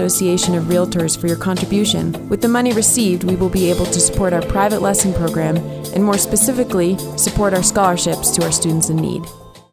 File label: MUSIC VO; Radio Testimonials; 2024 Radio Testimonials La Plata Family Center